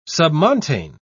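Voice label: "submontane", British English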